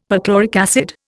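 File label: "perchloric acid", Indian English; Dictionary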